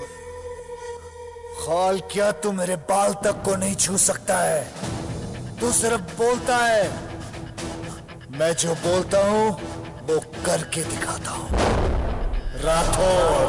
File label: rowdy dialogue; Indian